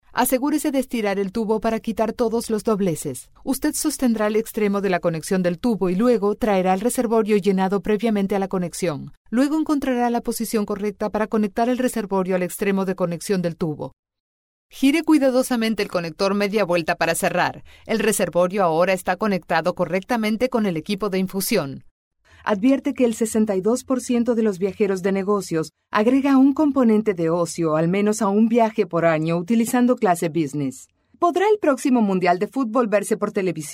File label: Spanish LA Female; Multilingual Voiceover